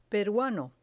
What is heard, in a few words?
"peruano", Spanish